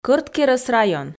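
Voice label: "кӧрткерӧс район", Komi, female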